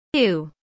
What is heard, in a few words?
Phoneme Female; Commonscript; Lynguistykon